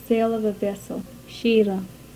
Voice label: Swahili sh

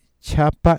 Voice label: "chhapa", cusco quechua; aspirated; ͜͜͜͜͜͜ʈʃʰ; ‘bran’

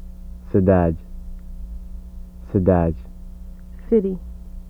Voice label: Portuguese d; This sound file highlights the voiced dental stop /d/ in the word cidade [sided] which means ‘city’.

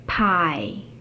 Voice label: pay aspirated, Chinese; Standard Chinese

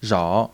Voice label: "ʐǎ", Chinese

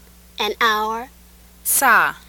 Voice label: Swahili s

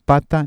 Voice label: "pata", cusco quechua; plain; ['pata] ‘chair, seat

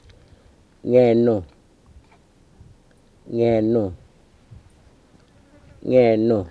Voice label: "ngernu", Murrinh-Patha; ngɛrnu

